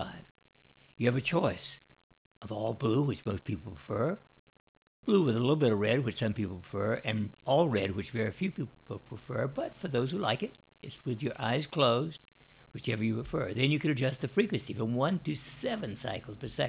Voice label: Relaxation